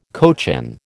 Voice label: "cochin", American